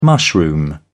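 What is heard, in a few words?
"mushroom", British English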